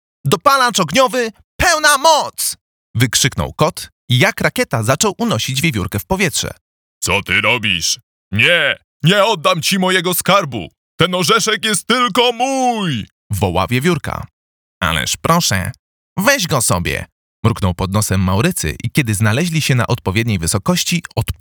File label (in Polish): Bajka pl